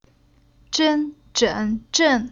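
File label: "Zhen", Chinese syllable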